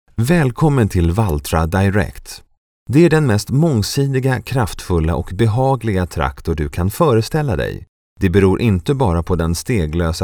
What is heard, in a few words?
SWEDISH Male